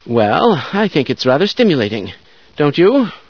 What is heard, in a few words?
stimulating, scientist; source engine; hl1; video games